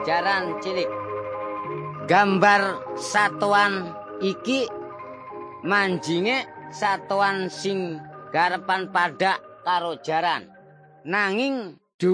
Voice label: indramayu lajer; parables about animals; javanese; bible

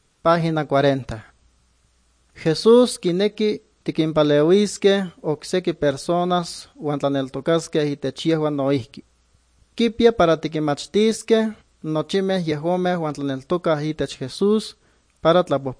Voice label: good news, nahuatl guerrero chilapa

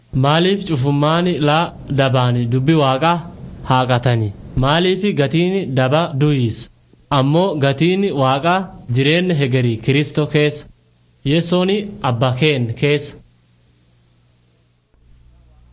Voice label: words of life borana gabbra

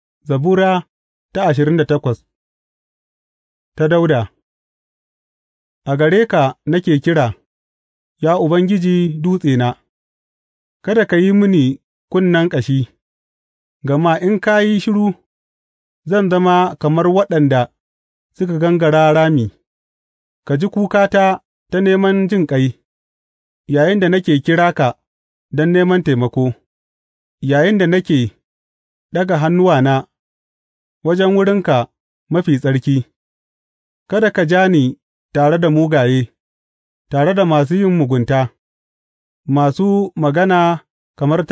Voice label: hausa bible drama, psalms; hausa bsn nt drama

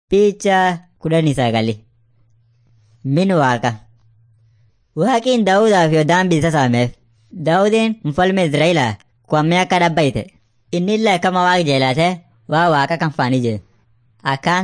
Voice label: afan munyoyaya; servants of god

